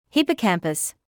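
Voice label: "hippocampus", British English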